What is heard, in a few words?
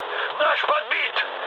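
Russian aircraft destroyed mood low, speech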